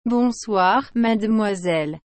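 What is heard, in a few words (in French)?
"Bonsoir Mademoiselle", French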